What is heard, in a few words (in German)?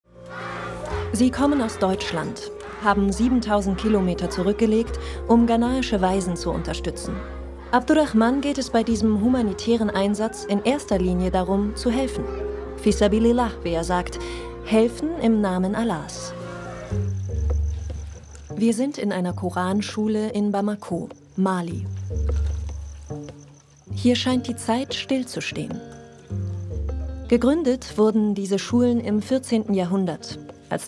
Doku Kommentarstimme; ARTE; helfen im namen allahs